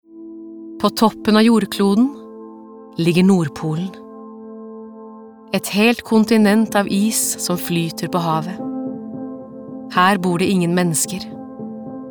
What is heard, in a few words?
no documentary